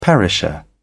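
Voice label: "perisher", British English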